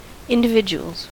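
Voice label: "individuals", US English; pronunciations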